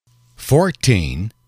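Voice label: "fourteen", English, male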